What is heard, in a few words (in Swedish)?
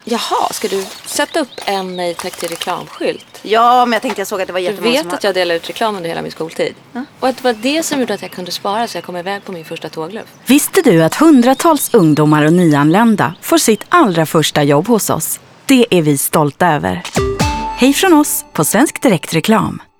radioreklam; Första jobbet